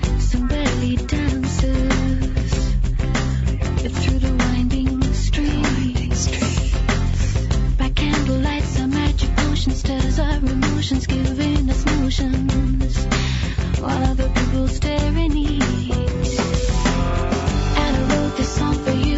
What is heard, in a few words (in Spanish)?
programa radio exitos; Éxitos para ti, radiofórmula